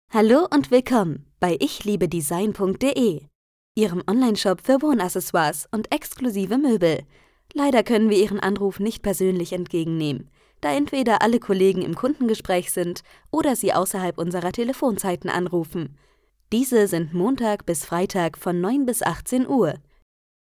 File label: GERMAN